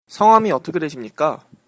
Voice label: name, Korean

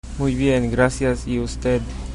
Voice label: i'm fine, chabacano

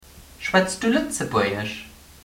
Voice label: do you speak, luxembourgish

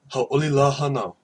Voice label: birthday, hawaiian

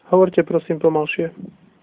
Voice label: slowly, slovak

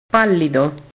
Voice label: "pallido", italian

